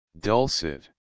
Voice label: "dulcet"